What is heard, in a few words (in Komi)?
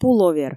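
"пуловер", Komi, female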